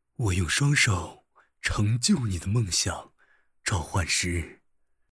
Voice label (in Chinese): 角色男; 李青